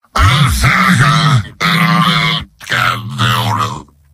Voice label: demoman gibberish; mvm

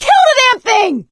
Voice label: witch chasing; voice; source engine; left 4 dead 2; survivor; producer

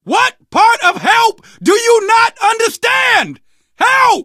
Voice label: help, survivor voice, manager

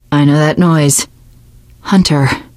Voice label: heard hunter, teen girl, voice; survivor voice

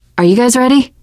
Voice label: ask ready, survivor voice teen girl

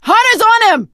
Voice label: hunter pounced, survivor voice

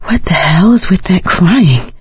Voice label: heard witch, survivor voice; Left 4 Dead 2; Source Engine; video games; producer

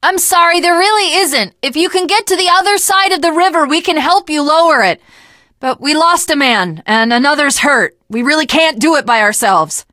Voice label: initial meeting, teen girl

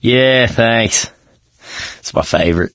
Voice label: world generic, mechanic; source engine; left 4 dead 2

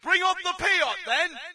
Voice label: brit bring up piat; player; Source Engine; dod

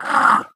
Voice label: angry

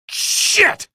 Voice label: alert head, male; video games; npc; source engine; episodic; episode 1

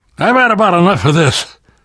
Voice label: player transition close, voice

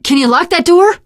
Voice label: close the door, teen girl, survivor voice; source engine; left 4 dead 2

